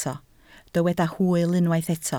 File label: "hwyl", Welsh